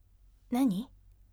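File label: voice nani firm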